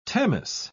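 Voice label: "tamis", British English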